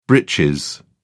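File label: "britches", British English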